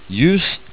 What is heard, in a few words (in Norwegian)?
"jus" Norwegian